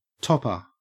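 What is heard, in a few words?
"topper", Australian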